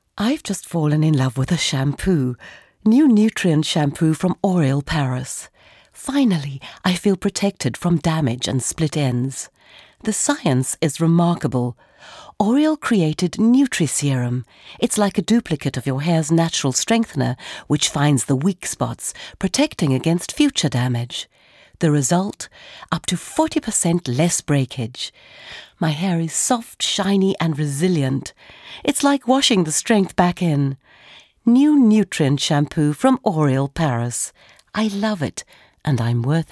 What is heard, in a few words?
English Bright